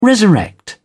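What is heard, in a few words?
"resurrect", English, British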